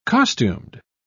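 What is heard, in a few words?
"costumed", British English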